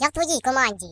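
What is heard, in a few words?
"Same team", British English; Default uk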